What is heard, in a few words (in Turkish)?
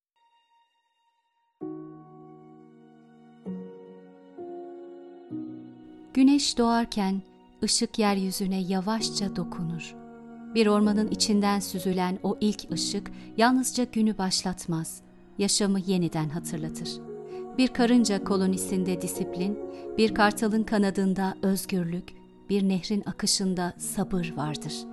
belgesel